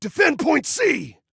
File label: DEFEND, Male